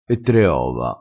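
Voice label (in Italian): "e tre ova", vocabolario dialettale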